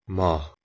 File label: "mah", Persian